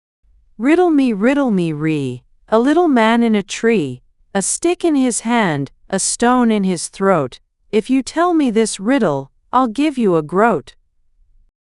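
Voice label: Riddle Me Ree, female, Australian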